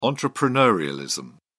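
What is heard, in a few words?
"entrepreneurialism", English, British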